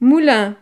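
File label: "moulin", French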